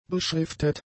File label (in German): "beschriftet", German; adjectives